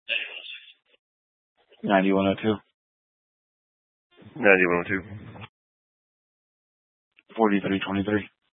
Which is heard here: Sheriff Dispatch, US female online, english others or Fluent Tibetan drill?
Sheriff Dispatch